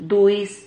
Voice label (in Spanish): "duis", Silabas